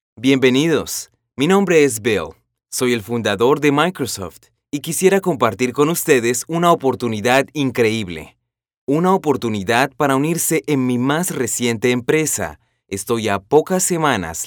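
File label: Spanish Latin American General; GoLocalise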